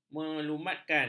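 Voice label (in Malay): "melumatkan", Malay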